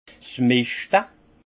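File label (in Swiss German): "meschte", alsace Haut-Rhin; fumure; vin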